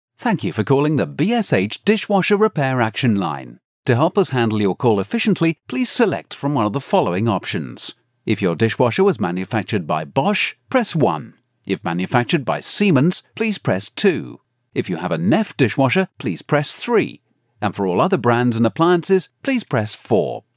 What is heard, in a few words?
male